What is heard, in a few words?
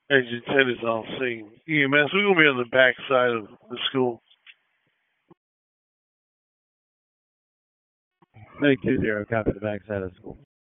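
Public Safety Tactical, p25; wake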